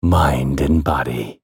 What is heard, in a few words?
spell cast effort; masteryi